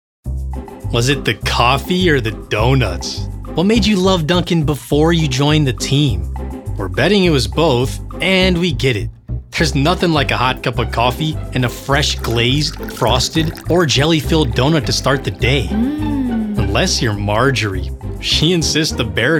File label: E-Learning